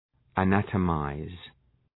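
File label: "anatomize", English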